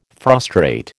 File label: "frustrate", American